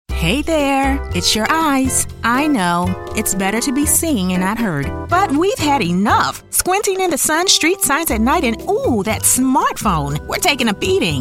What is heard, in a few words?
radio spot medical